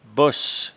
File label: "bosse", patois jura